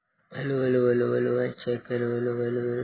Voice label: Voice Note